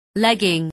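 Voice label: "legging", English word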